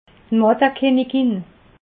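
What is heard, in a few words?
"mattekinnigin", Alsatian, Haut Rhin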